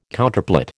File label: "Counterplot", American